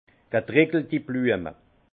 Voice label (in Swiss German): "getreckeltiblueme", Alsace; fleurs séchées; vin